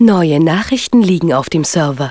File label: german f1